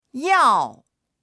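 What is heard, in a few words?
"yao4", Mandarin Chinese; 药; 薬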